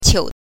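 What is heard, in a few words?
"qiu", Mandarin Chinese syllable, neutral tone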